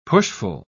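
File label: "pushful", British English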